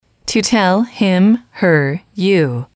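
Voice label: to tell say him her you, English, female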